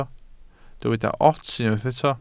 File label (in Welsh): "ots", Welsh